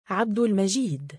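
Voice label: male "3abdulmajiid", Arabic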